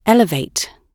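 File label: "elevate", British English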